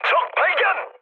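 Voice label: Korean enemy detected mood high; speech; War Thunder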